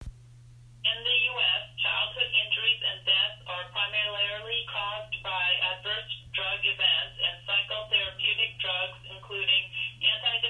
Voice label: phone